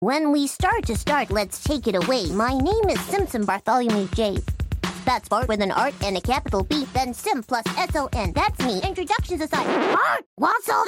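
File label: British English Characters; GoLocalise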